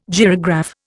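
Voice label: "gyrograph", Indian English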